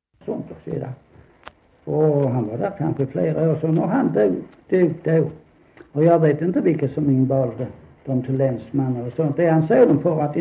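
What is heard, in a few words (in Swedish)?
Sankt Olof Skåne, Svenska sagor, dialekter; Folkminnen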